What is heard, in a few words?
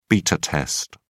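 "beta test", British English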